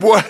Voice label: "what", Voice

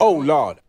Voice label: "oh lord", expletives, g-rated swears

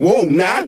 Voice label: "woah now", Voice

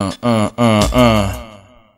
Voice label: "uh uh uh uh", Voice

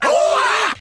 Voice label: "oh ahh", Voice; ooh ahh

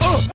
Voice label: "uh", male

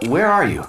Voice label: where are you announcer; questions

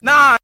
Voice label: "nah", male; Voice; expletives

